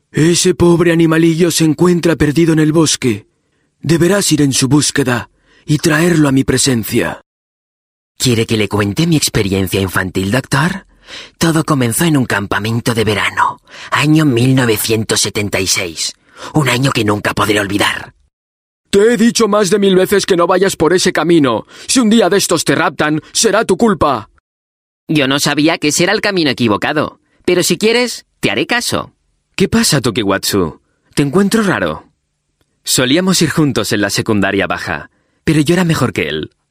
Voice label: Euro Spanish Dubbing Character